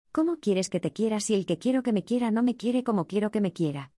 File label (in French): VIRELANGUE ESPAGNOL; LES DÉFIS LV : DÉFI n°2, LE JEU DU VIRELANGUE